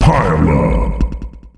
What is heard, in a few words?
Pile up, announcer male; Unreal Tournament; ut2004